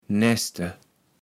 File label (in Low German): "neste", kaevels platt